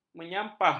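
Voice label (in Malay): "menyampah", Malay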